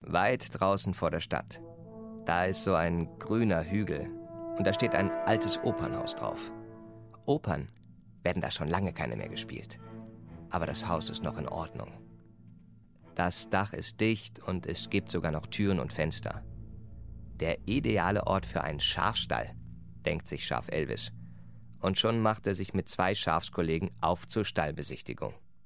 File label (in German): hörspiel